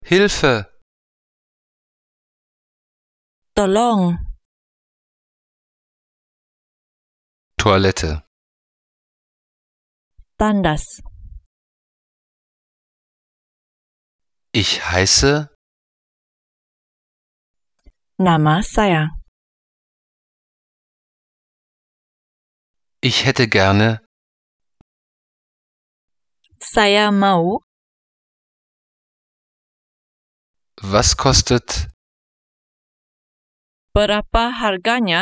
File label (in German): malaysisch